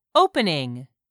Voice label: "OPENING", English; 3000 words